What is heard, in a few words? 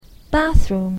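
"bathroom", English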